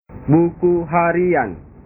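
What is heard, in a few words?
"buku harian", Indonesian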